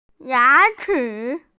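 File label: "teeth", Mandarin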